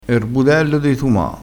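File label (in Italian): "budello", vocabolario dialettale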